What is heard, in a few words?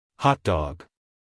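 "hotdog", American English, male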